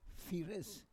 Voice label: "fires", Roon